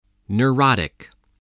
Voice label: "neurotic", voice

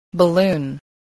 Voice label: "balloon", english

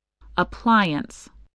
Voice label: "appliance", English

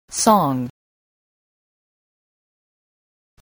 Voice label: "song", English voice

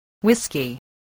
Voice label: "whisky", spelling

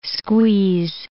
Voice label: "squeeze", English

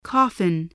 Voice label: "coffin", voice

